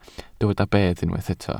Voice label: "bedd", Welsh

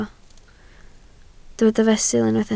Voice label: "fesul", Welsh

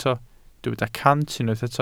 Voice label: "cant", Welsh

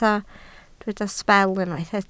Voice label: "sbel", Welsh

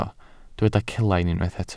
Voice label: "celain", Welsh

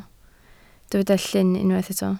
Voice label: "llun", Welsh

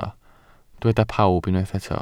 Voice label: "pawb", Welsh

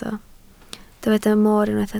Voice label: "mor", Welsh

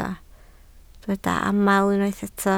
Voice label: "aml", Welsh

